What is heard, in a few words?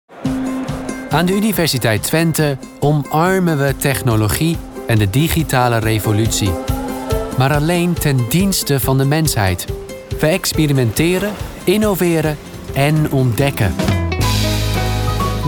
commercial dutch